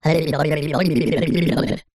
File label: cartoon voice character head shake dazed high pitched